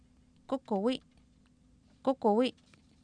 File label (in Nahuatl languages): "kUkuwI", Oapan, female; Oapan minimal pairs 1998